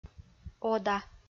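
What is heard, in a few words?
"ода", Komi, female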